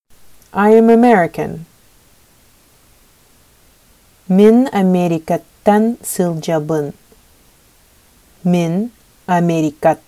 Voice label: Sakha Im American

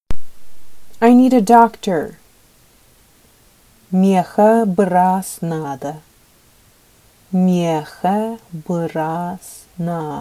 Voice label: Sakha I need a doctor

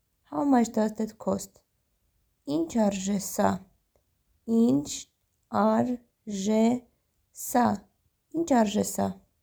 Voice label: How much does that cost Armenian Inch arzhe sa

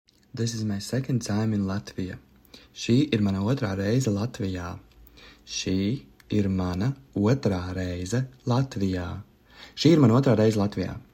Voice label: This is my second time in Latvia, Latvian; the talking latvian phrasebook

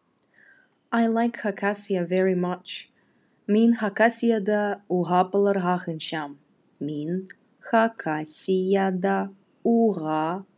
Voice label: Khakas I like Khakassia very much

